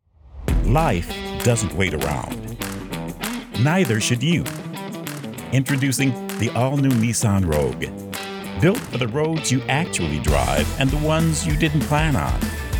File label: VO Commercial